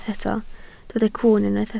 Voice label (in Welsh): "cwwn", Welsh